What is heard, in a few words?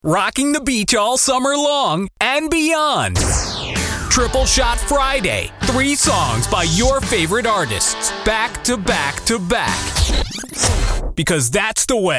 Radio Imaging